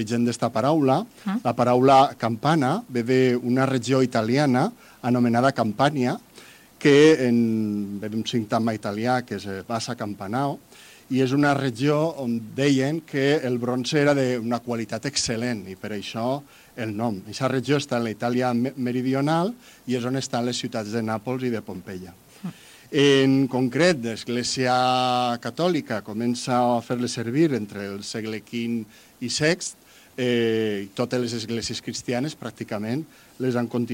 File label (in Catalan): entrevista campanes; tradicions campanas concierto la antevigila san jaime